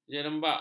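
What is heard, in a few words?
"jerembak", Malay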